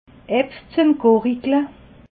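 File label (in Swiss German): "gurigle", Alsatian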